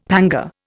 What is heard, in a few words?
"panga", Indian; Dictionary